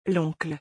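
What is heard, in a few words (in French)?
"L oncle", French; vocabulaire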